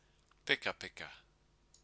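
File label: "pekapeka", Māori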